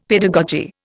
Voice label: "pedagogy", Indian; Dictionary

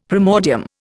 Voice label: "primordium", Indian; Dictionary

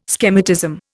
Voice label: "schematism", Indian

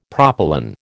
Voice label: "propylon", American

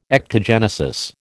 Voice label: "ectogenesis", American

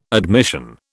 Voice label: "admission", British

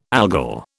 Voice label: "Algol", British

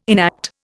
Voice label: "enact", Indian

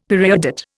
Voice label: "periodate", Indian; Dictionary

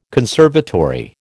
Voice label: "conservatory", American

